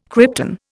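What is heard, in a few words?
"krypton", Indian English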